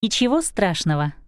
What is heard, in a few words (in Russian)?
"ничего страшного", Russian